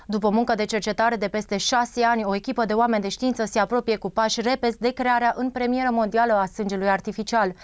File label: natural fem